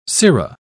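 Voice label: "cero", British English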